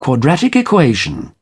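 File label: "quadratic equation", English, British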